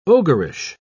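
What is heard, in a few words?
"ogreish", British English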